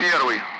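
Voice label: Speech, Russian; Allied Flight; DCS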